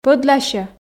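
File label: "пӧдласьӧ" Komi, female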